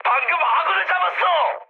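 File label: Korean player killed ally mood high, speech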